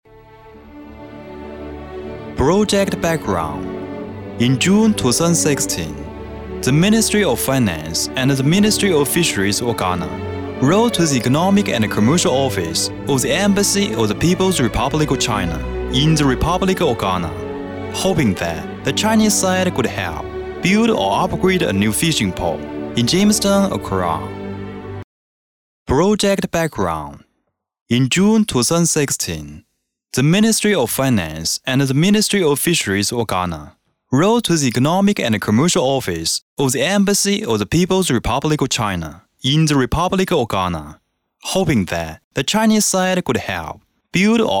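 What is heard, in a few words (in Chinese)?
英文企业宣传片渔港年轻阳光; 英文企业宣传片加纳渔港年轻阳光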